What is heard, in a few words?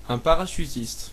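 paratrooper, French; military; stamps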